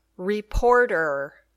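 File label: "reporter", English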